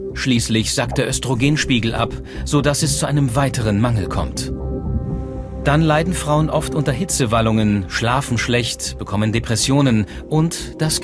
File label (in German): Dokumentation; Die Ernaehrungs Docs; NDR